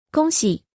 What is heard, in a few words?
congratulations, mandarin